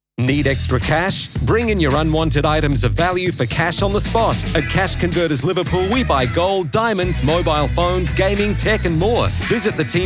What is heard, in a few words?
on hold messaging; Cash Converters